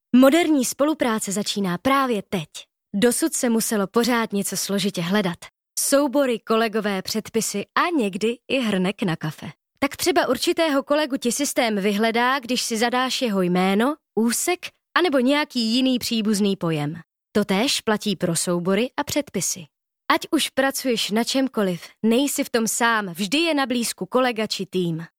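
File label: Czech elearning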